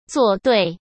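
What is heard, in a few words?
"作对", Mandarin Chinese; chinese-flashcards; hsk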